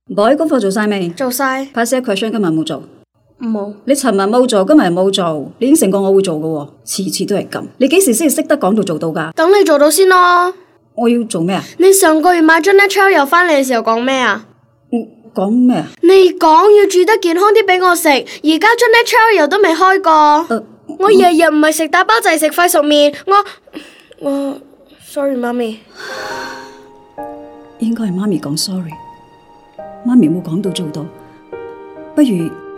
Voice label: Canto; Naturel Cooking Oil As Promise

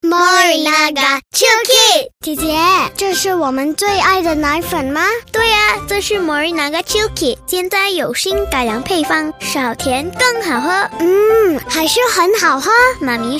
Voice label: Girl; Morinaga

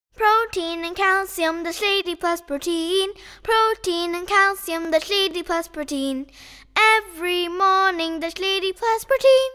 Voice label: ENG COLD VOX

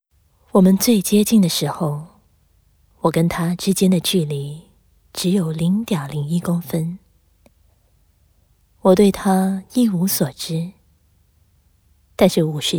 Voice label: VO Sample Low Sexy

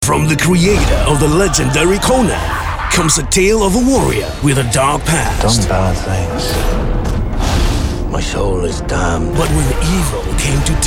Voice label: Eng; SOLOMANKANE

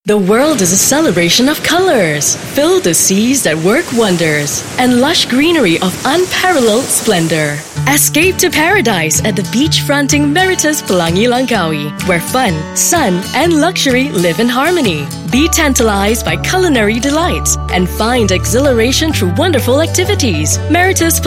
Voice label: RADIO VERSION; MERITUS PELANGI

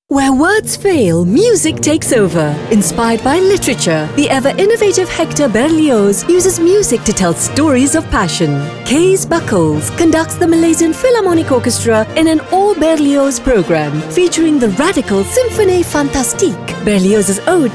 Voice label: ENG; BERLIOZ OBSESSION

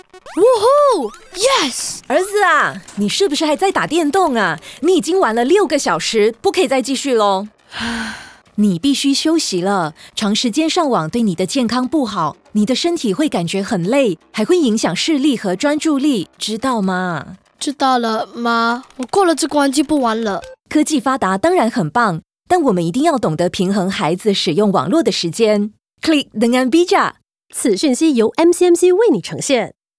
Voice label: PSA VO; MCMC

